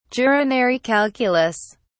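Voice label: "urinary calculus", British English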